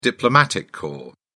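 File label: "diplomatic corps", British English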